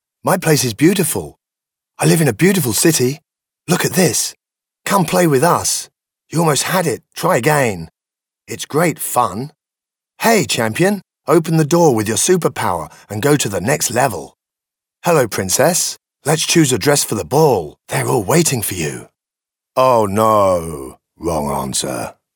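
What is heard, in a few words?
British male children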